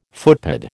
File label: "footpad", American